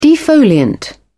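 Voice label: "defoliant", British English, dictionary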